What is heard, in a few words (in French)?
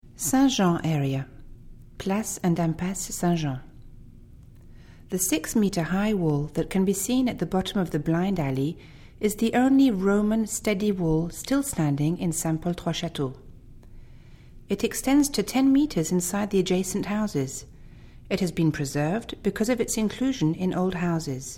ANGLAIS; Quartier Saint Jean